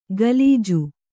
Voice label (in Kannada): "ಗಲೀಜು", Kannada